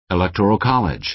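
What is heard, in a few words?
"electoral college", American English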